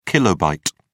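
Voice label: "kilobit", British English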